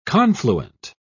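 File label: "confluent", British English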